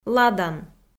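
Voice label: "ладан", Komi, female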